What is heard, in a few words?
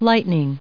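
"lightning", English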